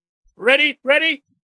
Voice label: military soldiers pr